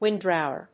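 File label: "windrower", English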